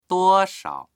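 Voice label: "duo1shao", Mandarin Chinese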